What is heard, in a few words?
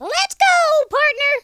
"orders", Cowgirl; speech